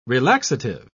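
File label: "relaxative", British English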